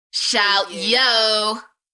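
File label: British female Shout yo; beanstalk audio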